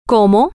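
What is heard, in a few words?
what, Spanish, female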